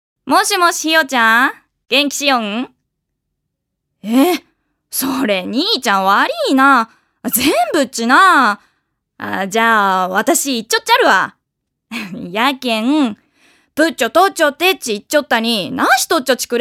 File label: female voice